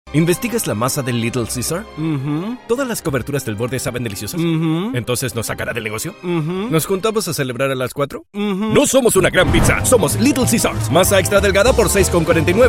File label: Spanish Latin American commercial